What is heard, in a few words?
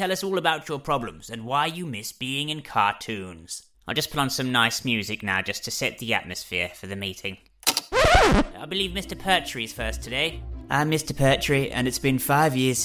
character